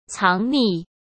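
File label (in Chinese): "藏匿", Mandarin Chinese; chinese-flashcards; hsk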